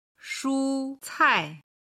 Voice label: "shu cai", Chinese

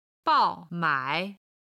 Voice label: "bao4 mai3", chinese

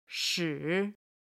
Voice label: "shi", Chinese

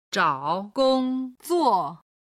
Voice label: "zhaogongzuo", Chinese

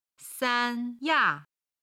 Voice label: "san1ya4", chinese

